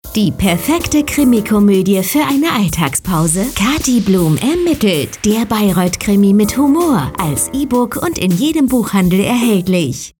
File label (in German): Funkspot